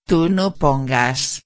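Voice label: "no pongas", Spanish; imperativo negativo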